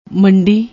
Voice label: "manddi", Punjabi

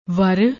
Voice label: "ver", Punjabi

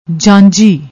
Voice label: "jaanji", Punjabi

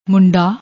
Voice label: "moonda", Punjabi

